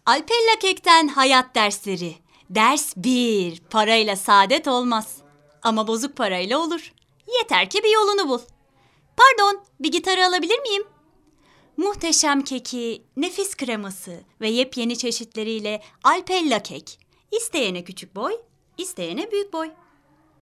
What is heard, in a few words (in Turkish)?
reklam